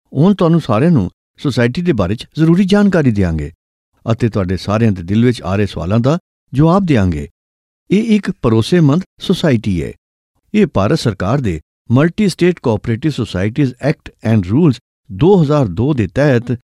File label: punjabi punjabi voiceover locutor